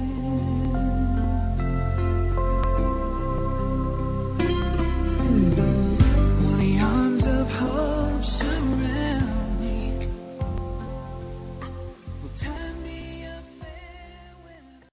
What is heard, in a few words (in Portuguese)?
Romântica pra Você Pensar